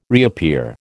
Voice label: "reappear", American